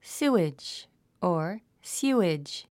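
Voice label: "sewage", English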